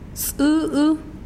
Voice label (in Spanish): pajaro, mazahua